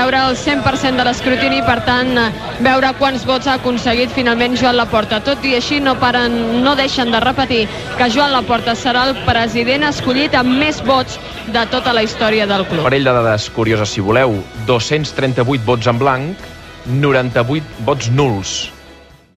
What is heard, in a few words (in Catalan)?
Dies de radio Eleccions Barça Cat R; 2003